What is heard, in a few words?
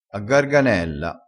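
"a garganella", Italian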